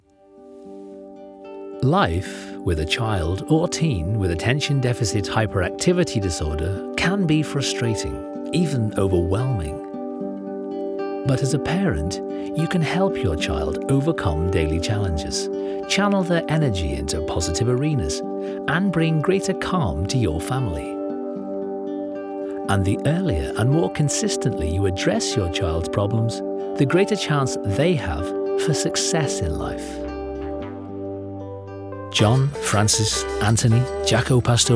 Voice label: Narration

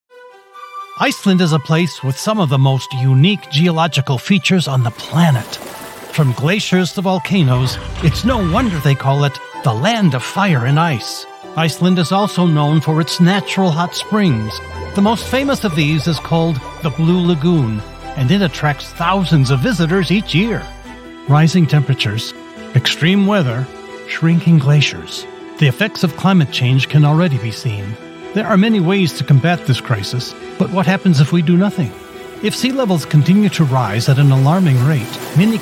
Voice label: NARRATION

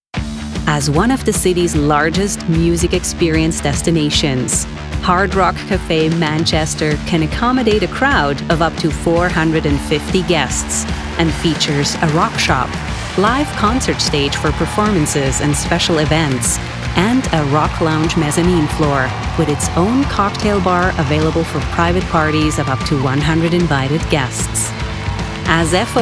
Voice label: E-LEARNING

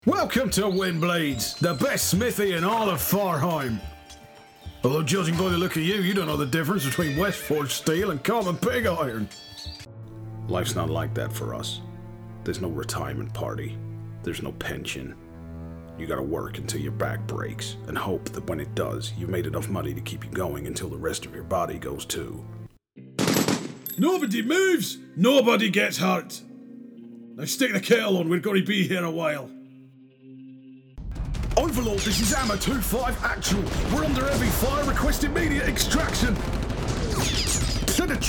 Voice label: Character

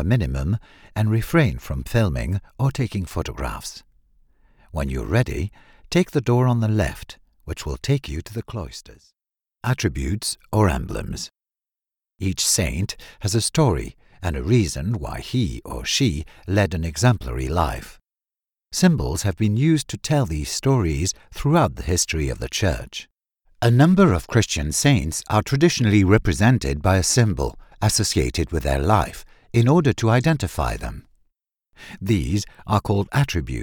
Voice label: Museum Guide English Euro Neutral

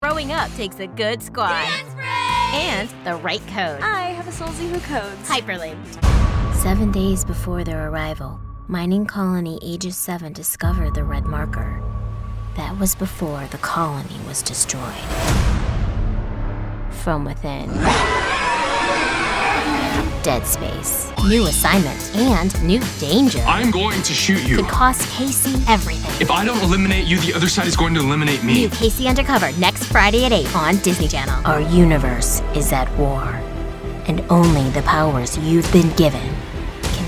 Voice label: promo

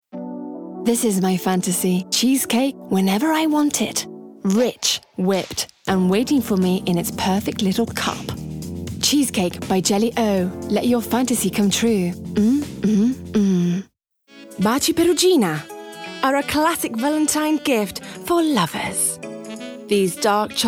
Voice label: COMMERCIALS

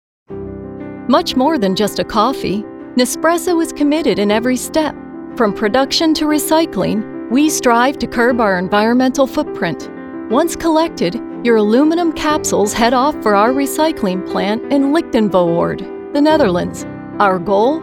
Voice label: corporate

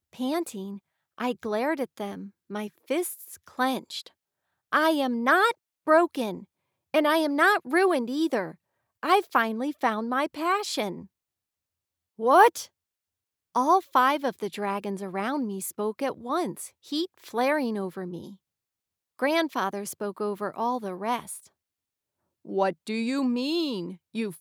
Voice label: Audiobook 1st Person Childrens Age 8 12

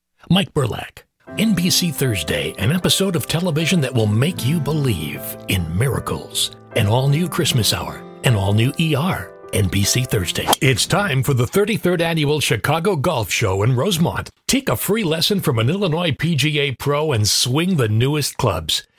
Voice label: promo

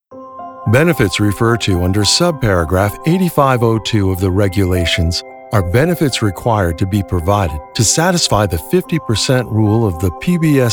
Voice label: E LEARNING

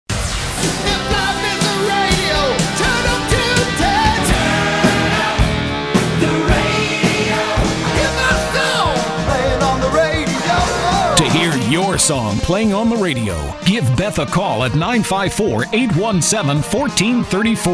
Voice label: Production Imaging

